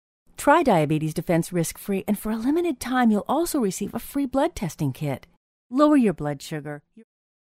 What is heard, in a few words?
soft sell